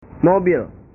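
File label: "mobil", Indonesian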